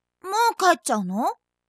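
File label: "moukae", Japanese; announce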